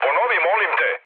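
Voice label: Serbian chat; War Thunder; speech srb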